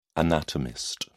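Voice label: "anatomist", English, British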